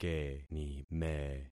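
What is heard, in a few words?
"kenime", concatenated syllables; Affixing experiment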